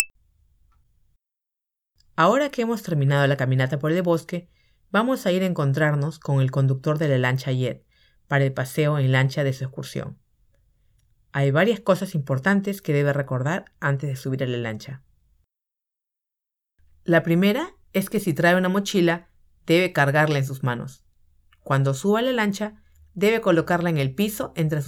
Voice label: spanish; dartriver